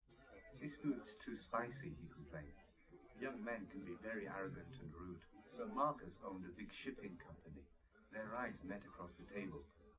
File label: male, live rec; SiSEC11; underdetermined